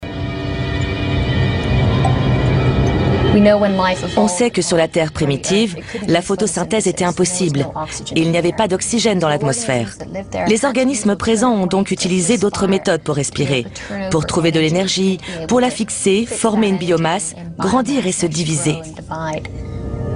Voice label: voice over